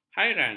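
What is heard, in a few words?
"hairan", Malay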